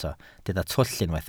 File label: "twll", Welsh